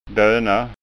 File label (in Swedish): "dona", skanska